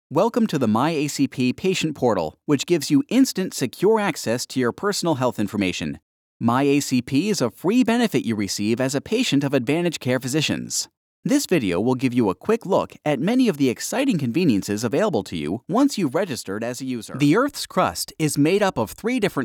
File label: Edu Training